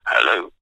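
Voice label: human male english says "hello" telephone receiver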